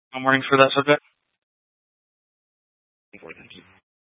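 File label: Tempe police department, scanner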